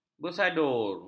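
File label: "bersadur", Malay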